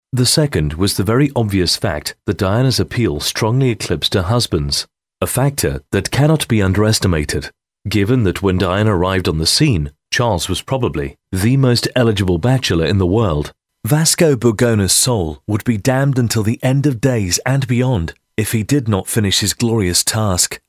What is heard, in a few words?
Audiobook